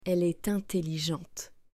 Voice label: "elle est intelligente", French